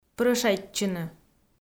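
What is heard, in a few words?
"прӧщайтчыны", Komi, female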